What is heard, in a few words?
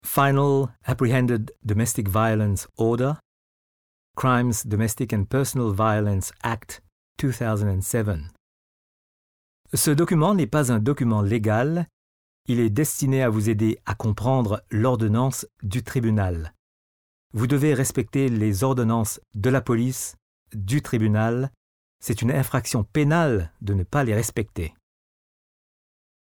french; introduction